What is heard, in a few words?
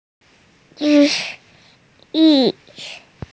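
"zhi4yu4", Mandarin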